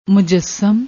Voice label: "mujassam", Punjabi